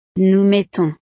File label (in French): "nous mettons", frances